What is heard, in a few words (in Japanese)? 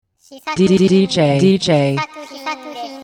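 DJの言い方（女性）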